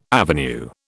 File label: "avenue", British